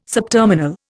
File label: "subterminal", Indian